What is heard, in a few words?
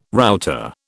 "router", British